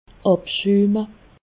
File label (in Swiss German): "abschumme", alsace